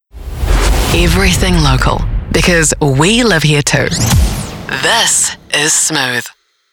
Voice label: English British radio imaging

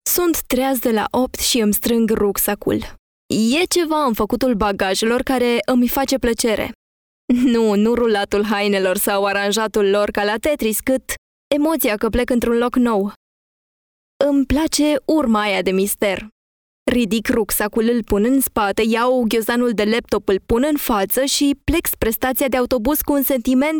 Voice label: romanian audiobooks

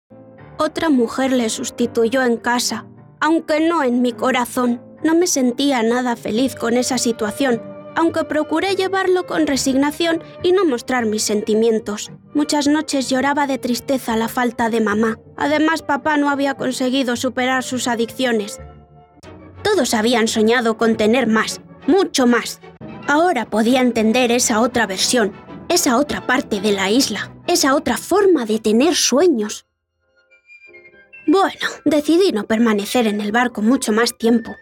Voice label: spanish narration, voice actors

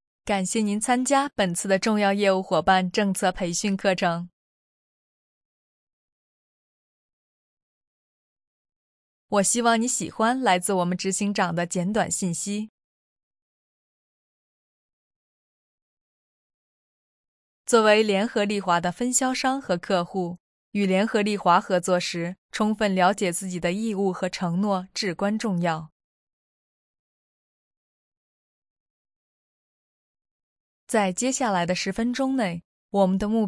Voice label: Chinese Mandarin commercial

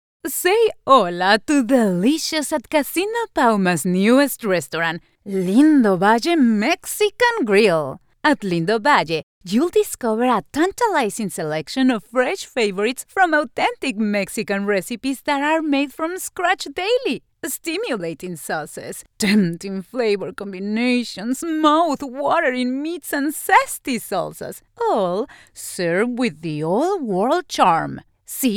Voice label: english announcements

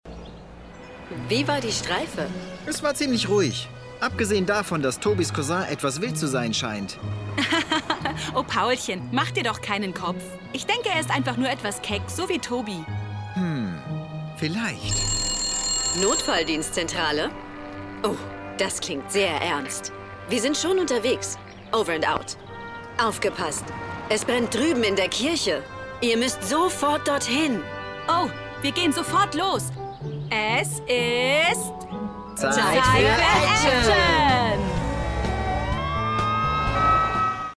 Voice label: german animation; professioneller zweisprachiger Sprecher

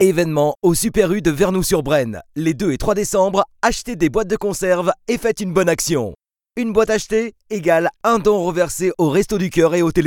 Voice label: french promos

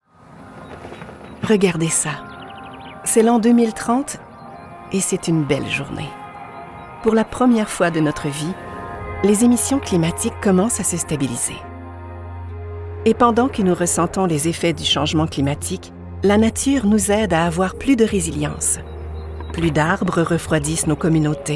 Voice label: french canadian others

